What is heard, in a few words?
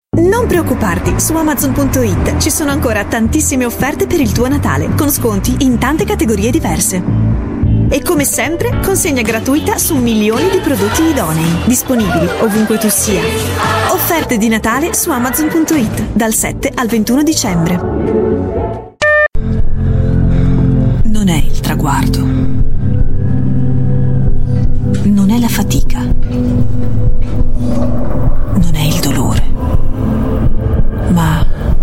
italian voice over female commercial